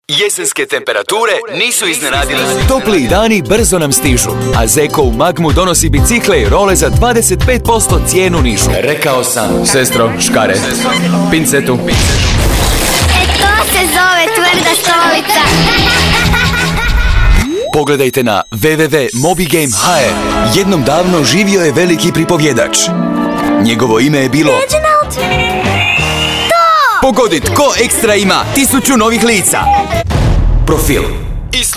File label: croatian m commercial, voice demos